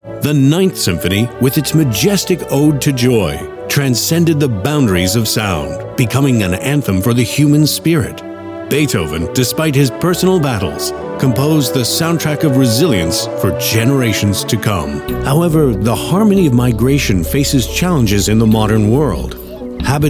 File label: Narration Voiceover; Full Range Voice Over Demos